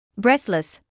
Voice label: "breathless", medical english